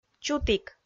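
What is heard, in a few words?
"чутік", Komi, female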